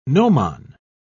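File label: "gnomon", British English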